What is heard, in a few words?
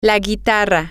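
the guitar, Spanish, female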